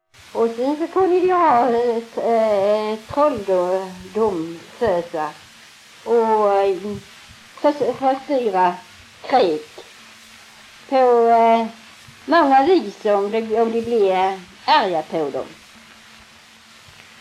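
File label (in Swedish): Tving Blekinge, dialekter svenska sagor; Folkminnen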